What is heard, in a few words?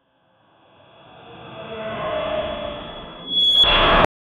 facility intercom, scary